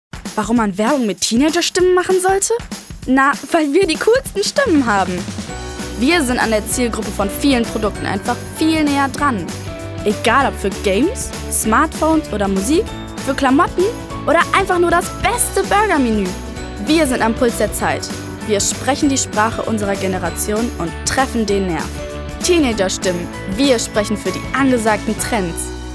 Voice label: Werbespot

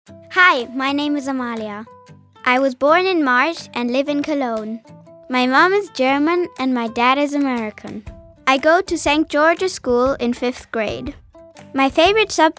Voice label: Selbstvorstellung EN

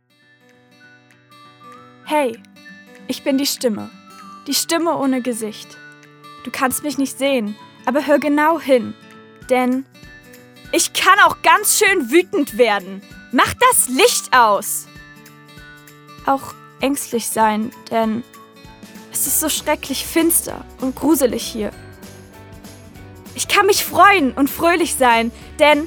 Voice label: Werbung mit Wetter

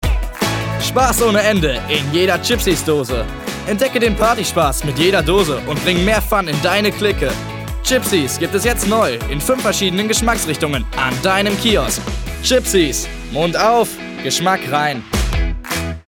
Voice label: Werbung; Chipsies

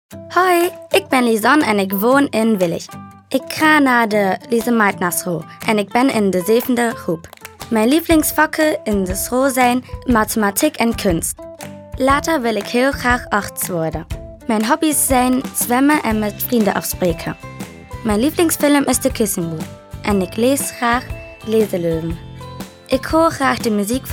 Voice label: Selbstvorstellung NL

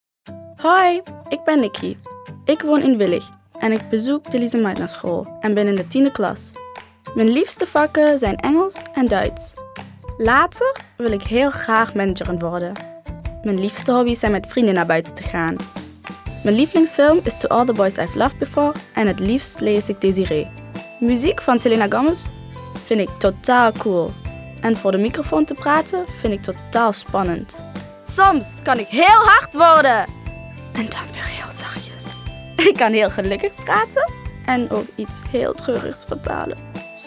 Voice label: Selbstvorstellung NL

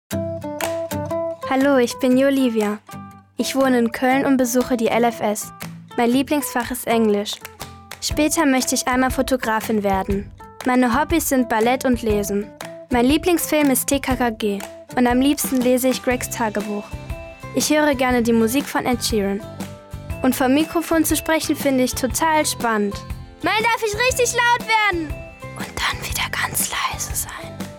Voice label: Selbstvorstellung DE

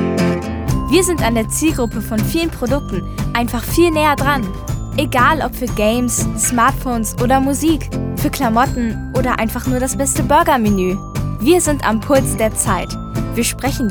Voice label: Werbung Teenagerstimmen

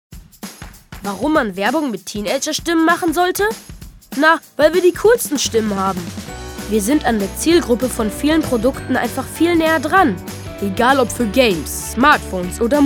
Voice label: Werbung

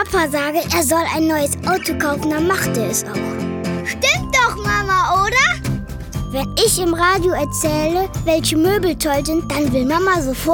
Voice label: Werbung Kinderstimmen

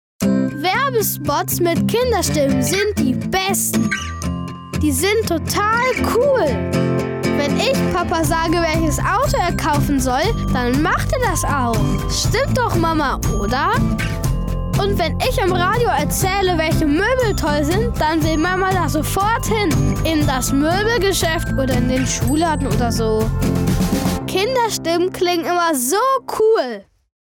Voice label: Werbung Kinderstimme

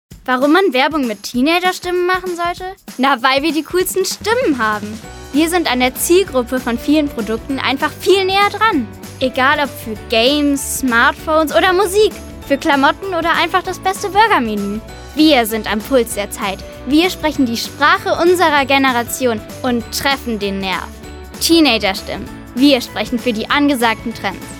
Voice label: Werbung

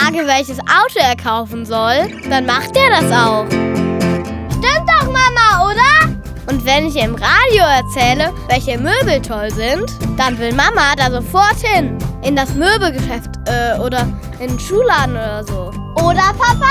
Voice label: Werbespot

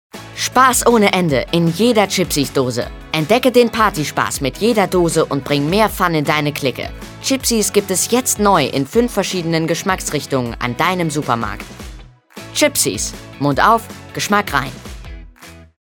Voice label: Werbung Chipsies

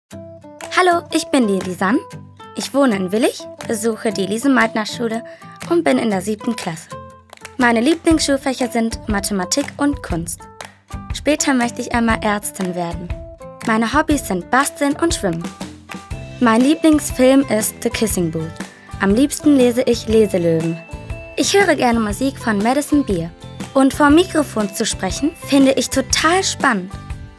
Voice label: Selbstvorstellung DE

